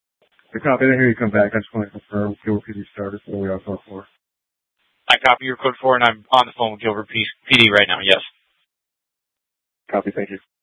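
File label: Gilbert, Fire Department, scanner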